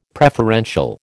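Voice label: "preferential", American English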